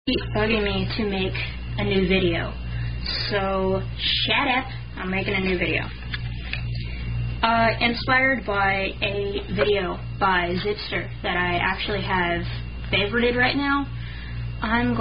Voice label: Tongue Twisters